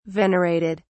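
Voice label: "venerated", British English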